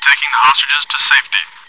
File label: chitchat, bot, voice commands; svencoop